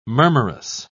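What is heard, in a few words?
"murmurous", British English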